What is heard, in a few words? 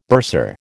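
"bursar", American English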